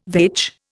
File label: "vetch", Indian